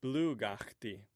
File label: "bthugaxti", Omaha